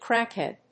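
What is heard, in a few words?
"crackhead", English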